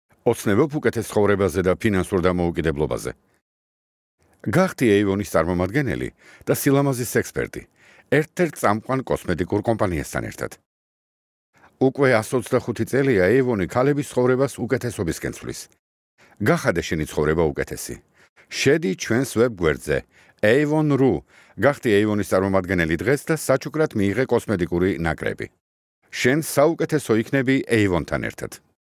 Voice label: Georgian